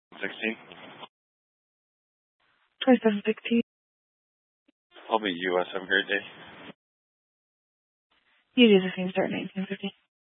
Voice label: Sheriff Dispatch; Mesa County

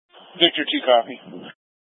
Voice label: Tactical Incidents, scanner; Grand Junction Tactical 3

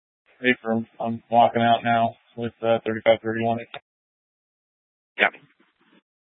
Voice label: Police Department Dispatch, scanner

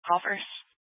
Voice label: Sheriff Dispatch, scanner

